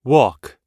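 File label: "walk", English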